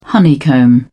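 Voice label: "honeycomb", British English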